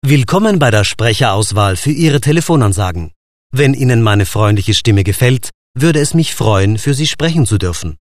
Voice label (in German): INTRO deu freundlich, professionelle ansage telefonansagen; Telefonanlage; Deutsch; professionelle ansage telefonansagen musik